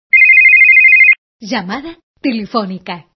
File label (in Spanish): Locutora llamada telefonica; Tonos de llamada